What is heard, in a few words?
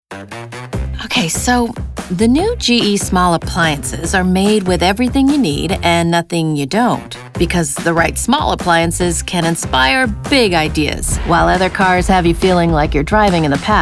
Commercial